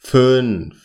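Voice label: "fuenf", German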